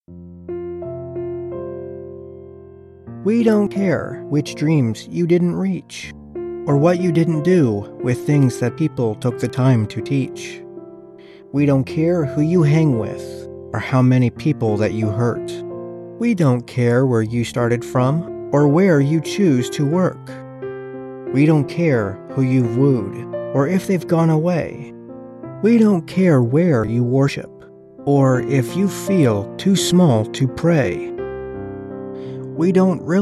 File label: poem a caring message to addicts